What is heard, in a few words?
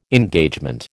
"Engagement", American